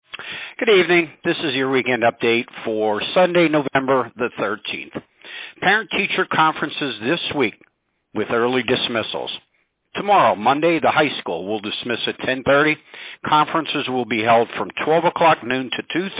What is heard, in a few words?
Robocall